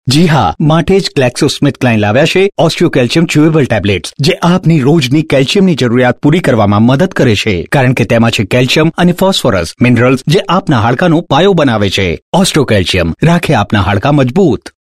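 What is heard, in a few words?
Gujarati, male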